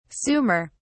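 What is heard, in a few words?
"sumer", British English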